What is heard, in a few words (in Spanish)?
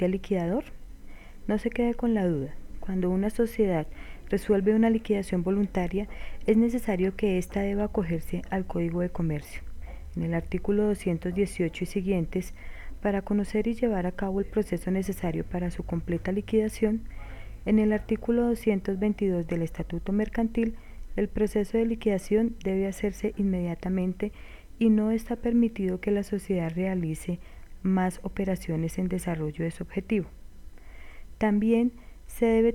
comercial